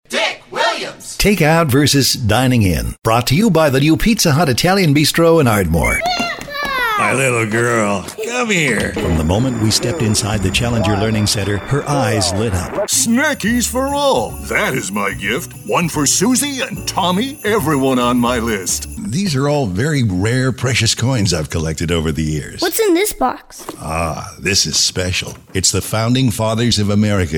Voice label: Commercial

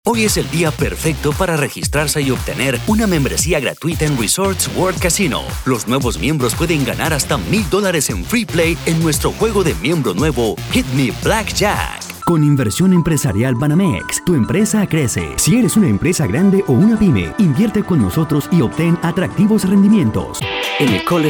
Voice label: Spanish Commercial; Spanish voice over

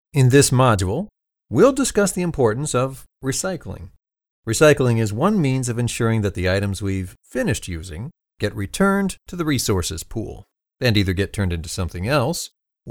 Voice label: E-Learning

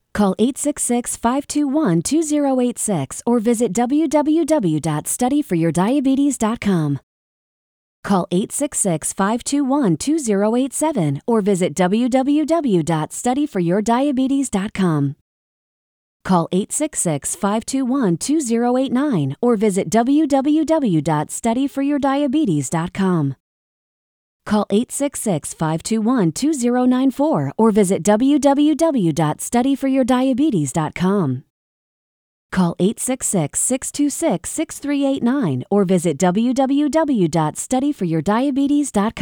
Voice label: Radio TV Imaging

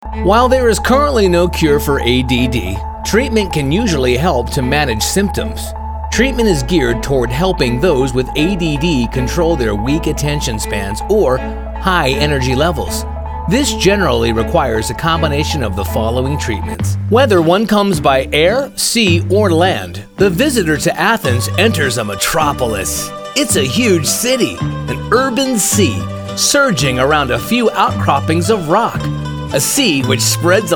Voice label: narration

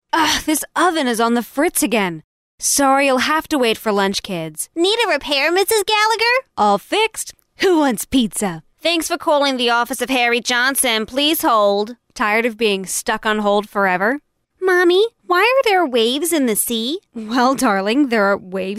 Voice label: Character

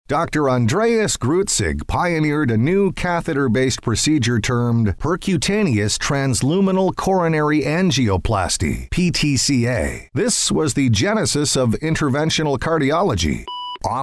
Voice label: narration

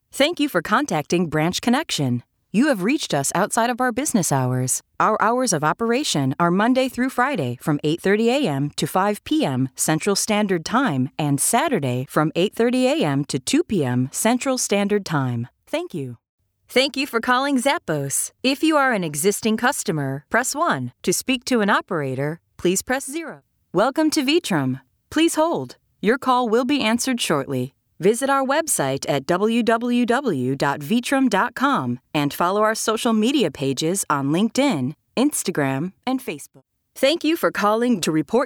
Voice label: Voicemail

